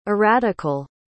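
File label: "erratical", English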